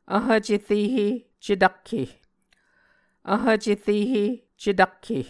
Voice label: "vhv cerehe cetakke", Muscogee